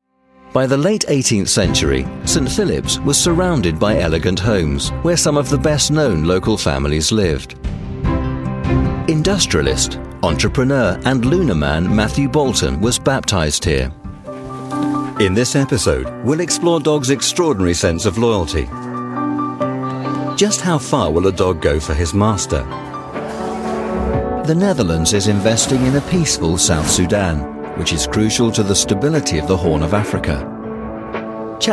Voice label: documentary narration

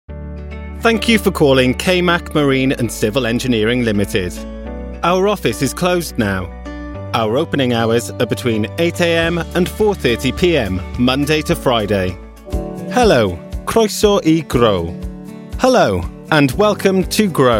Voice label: telephone

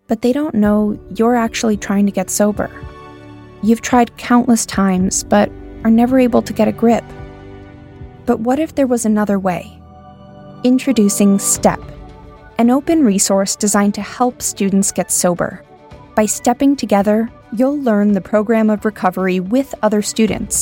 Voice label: documentary

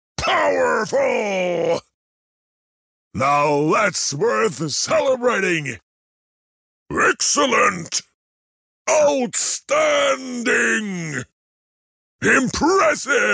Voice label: swedish voice computer game

